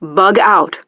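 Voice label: "Bugout" English, speech; Betty; DCS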